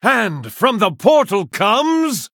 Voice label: Male Human TRIGGER UNSTABLE PORTAL

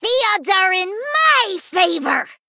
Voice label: Female Goblin

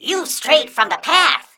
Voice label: Mech Greetings

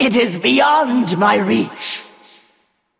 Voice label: Female Val'kyr ERROR TARGET

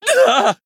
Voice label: Male Blood Elf Death

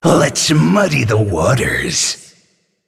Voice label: Male Naga Play

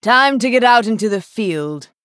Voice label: Female Night Elf; Elise

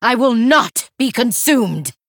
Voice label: Female Human Bark; Natalie Seline

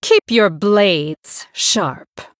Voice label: Female Blood Elf

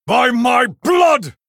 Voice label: Male Blood Elf Attack

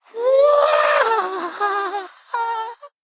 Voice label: Male Kobold Death

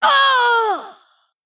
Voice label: Female Night Elf Death

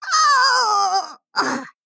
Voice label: Female Gnome Death

